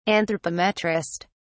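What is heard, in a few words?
"anthropometrist", English, British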